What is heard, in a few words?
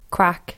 "Craic", irish